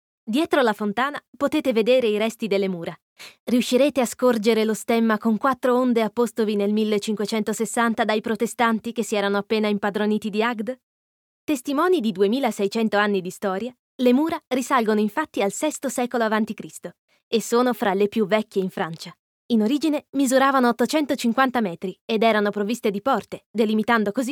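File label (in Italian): Italian audioguida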